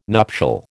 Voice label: "nuptial", American